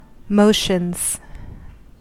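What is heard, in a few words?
"motions", US English; pronunciations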